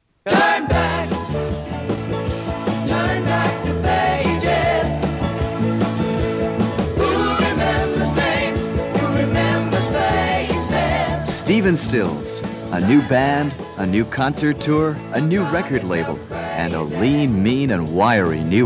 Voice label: Radio Commercials; 1975